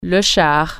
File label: "tank", French